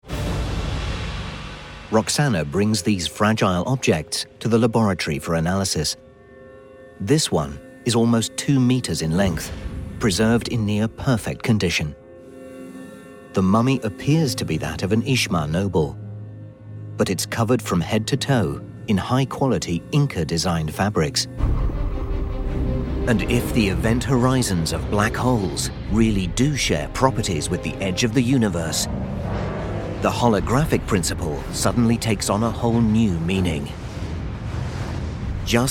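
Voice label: British English documentary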